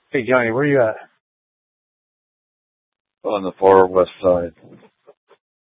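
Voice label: Mesa Police Department Superstition Dispatch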